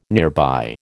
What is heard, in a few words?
"nearby", American